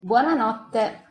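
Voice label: "buonanotte", Italian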